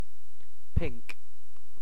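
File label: "pink", British English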